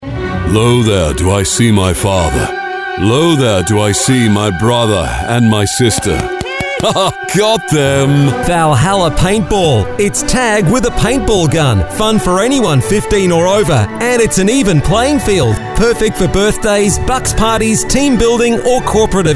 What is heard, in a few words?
radio ad; valhalla